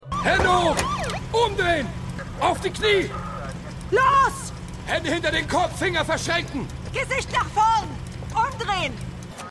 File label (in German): Off Polizistin; Sloan; Bosch